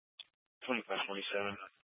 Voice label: Mesa County Sheriff Dispatch